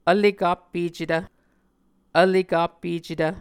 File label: "vlekappecetv", Muscogee